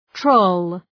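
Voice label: "troll", English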